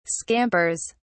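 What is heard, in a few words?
"scampers", British English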